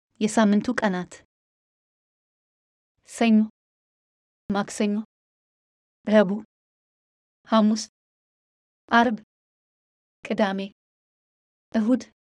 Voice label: amharic days

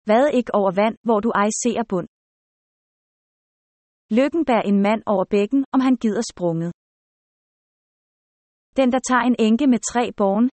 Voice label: danish proverbs

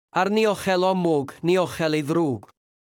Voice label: Welsh proverb

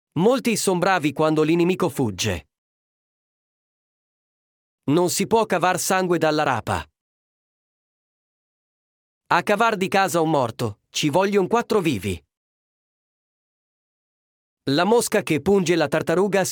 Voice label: Italian proverbs

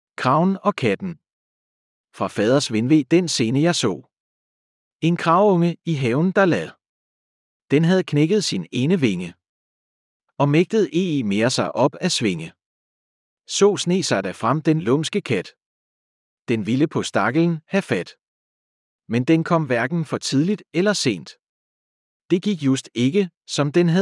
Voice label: the crow and the cat danish

